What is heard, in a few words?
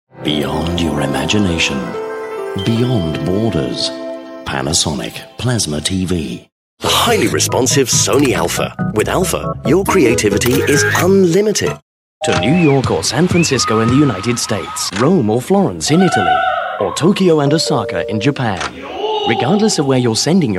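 British Megamix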